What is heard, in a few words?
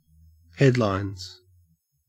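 "headlines", Australian English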